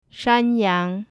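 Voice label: shanyang, goat, mandarin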